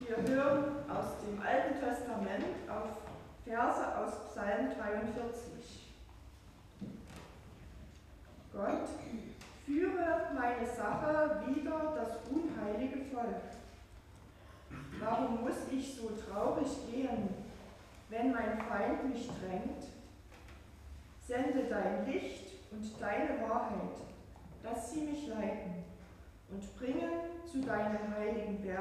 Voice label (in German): Lesung AT; Gottesdienste zum Nachhören